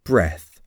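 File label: "breath", English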